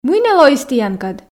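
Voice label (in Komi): мый нӧ лоис тіянкӧд, Komi, female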